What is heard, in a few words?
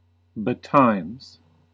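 "betimes", American English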